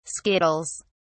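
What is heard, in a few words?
"skittles", British English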